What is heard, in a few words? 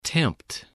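"tempt", English word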